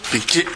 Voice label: "bikki", minamiyamagata; 蛙。〈べっき〉とも。

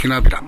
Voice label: "henabera", minamiyamagata

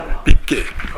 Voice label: "bikki", minamiyamagata; 蛙。〈べっき〉とも。

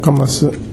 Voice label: "kamasu", minamiyamagata

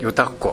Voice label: "yotako", minamiyamagata

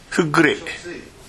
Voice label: "fuggure", minamiyamagata

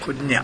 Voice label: "konnya", minamiyamagata

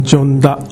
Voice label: "jonda", minamiyamagata

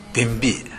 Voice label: "denbi", minamiyamagata

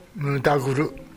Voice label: "nudaguru", minamiyamagata

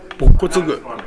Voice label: "bokko", minamiyamagata

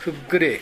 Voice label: "fuggure", minamiyamagata

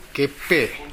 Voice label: "geppe", minamiyamagata

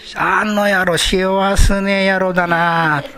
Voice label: "sewasune", minamiyamagata

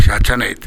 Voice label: "yachanae", minamiyamagata

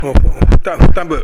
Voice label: "futabu", minamiyamagata

